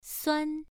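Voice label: "suan" Mandarin Chinese, first tone, pronunciation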